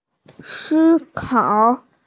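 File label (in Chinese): "si1kao3", Mandarin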